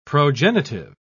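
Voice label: "progenitive", English, British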